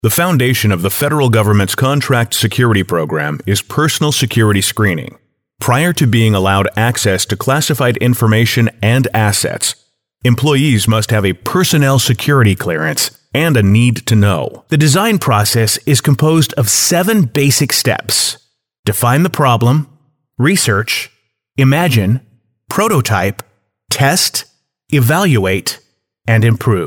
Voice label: eLearning